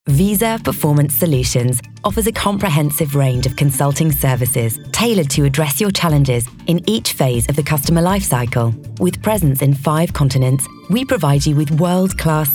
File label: Corporate